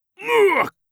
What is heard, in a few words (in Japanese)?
"ぐぅぅっ！！", 戦闘ボイス 中年 低音